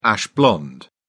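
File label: "ash blonde", English, British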